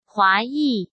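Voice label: "华裔" Mandarin Chinese; hsk; chinese-flashcards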